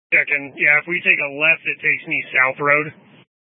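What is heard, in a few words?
Incident Tac 1, Scanner audio recording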